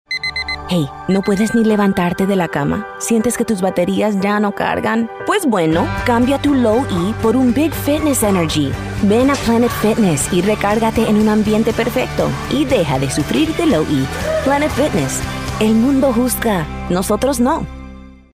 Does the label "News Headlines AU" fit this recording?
No